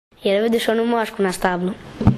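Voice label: "muaska", Croatian dialect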